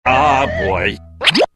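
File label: "oh boy", exclamations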